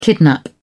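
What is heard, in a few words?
"kidnap", British English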